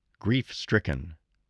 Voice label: "grief-stricken", spelling